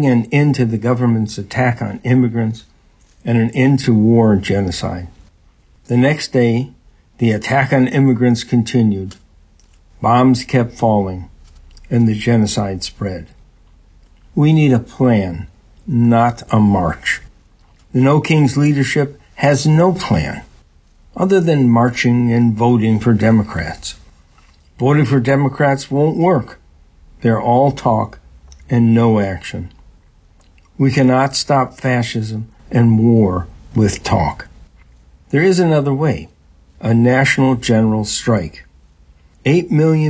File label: Ivr Voice Over; No Kings Day #3